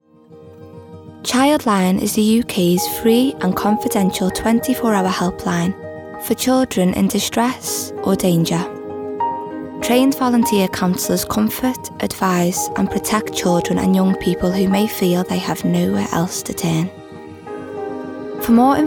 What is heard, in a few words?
Promo